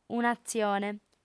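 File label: "un'atzione", Sardinian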